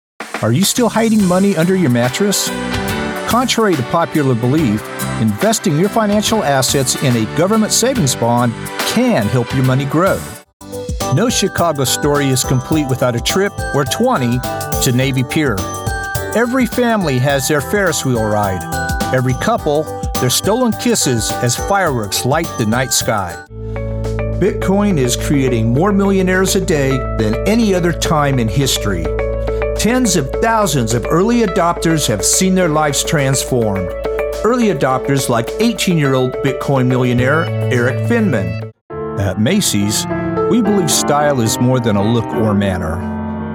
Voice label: Commercial, no slate